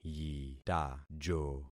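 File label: "yitajo", concatenated syllables; Affixing experiment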